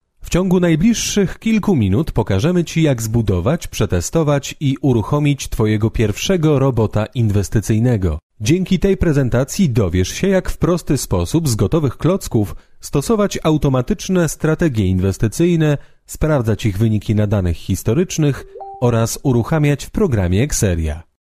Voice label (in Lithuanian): VYR Lenku balsas, vyras